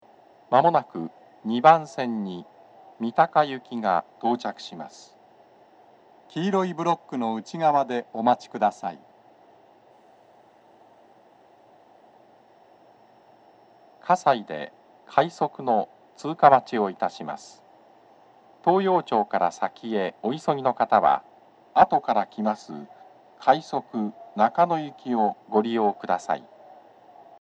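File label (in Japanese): 接近放送, 男声, 放送は「各駅停車 三鷹行き」です。葛西で、快速 中野行きの通過待ちを致します。; TOA円型での収録です。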